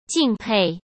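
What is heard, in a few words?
"敬佩", Mandarin Chinese; hsk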